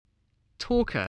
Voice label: "talker", English